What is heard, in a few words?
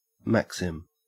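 "maxim", Australian English; pronunciations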